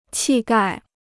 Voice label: "气概", Chinese

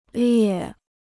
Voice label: "裂", Chinese

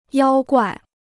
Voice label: "妖怪", Chinese

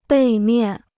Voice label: "背面", Chinese

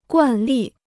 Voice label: "惯例", Chinese

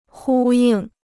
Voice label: "呼应", Chinese

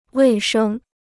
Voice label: "卫生", Chinese

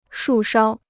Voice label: "树梢", Chinese

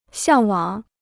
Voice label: "向往", Chinese

